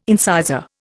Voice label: "incisor", Indian